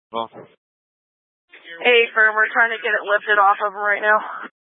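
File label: Incident Tactical 1, Scanner audio recording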